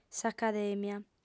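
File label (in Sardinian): "s'acadèmia", female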